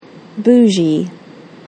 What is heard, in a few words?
bougie pronunciation